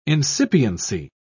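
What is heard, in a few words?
"incipiency", British English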